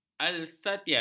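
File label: "alsatian", Malay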